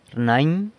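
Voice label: "rnany", San Lucas Quiaviní Zapotec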